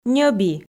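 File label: "ньӧби", Komi, female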